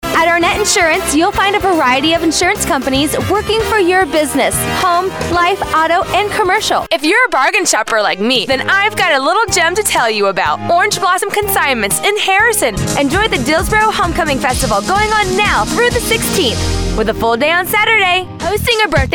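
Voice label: Announcer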